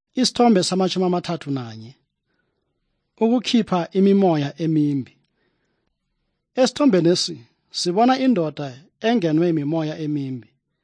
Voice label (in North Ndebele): good news ndebele southern, drama